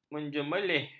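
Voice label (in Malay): "menjemeleh", Malay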